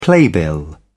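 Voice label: "playbill", British English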